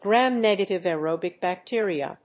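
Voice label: "Gram-Negative Aerobic Bacteria", English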